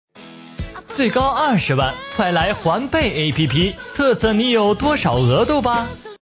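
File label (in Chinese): 男广告 APP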